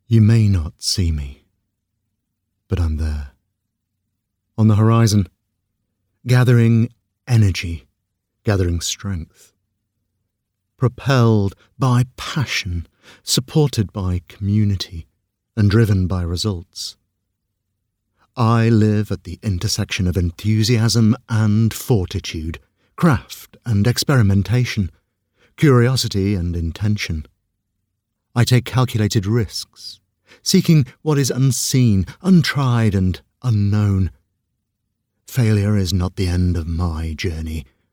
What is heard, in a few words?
voiceover narrative commercial emotive